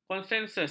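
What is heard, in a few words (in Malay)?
"konsensus", Malay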